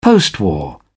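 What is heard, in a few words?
"postwar", British English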